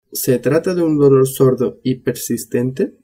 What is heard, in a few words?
"persistente", spanish